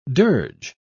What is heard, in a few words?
"dirge", pronounce